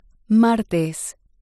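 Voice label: "martes", Spanish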